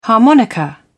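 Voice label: "harmonica", British English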